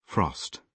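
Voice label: "frost", British English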